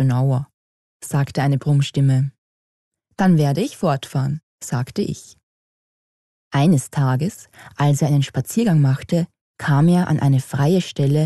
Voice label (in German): Winnie Puh Prosa